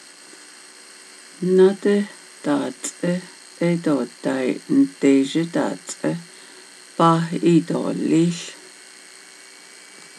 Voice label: navajo Is your older sister or your younger sister going